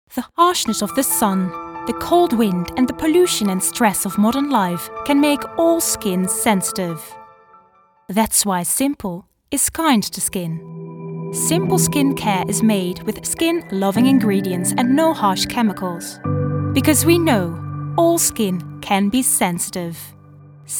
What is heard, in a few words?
English Commercial